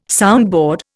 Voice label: "soundboard", Indian